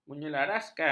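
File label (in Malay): "menyelaraskan", Malay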